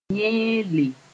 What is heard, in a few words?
"yẽele", Mooré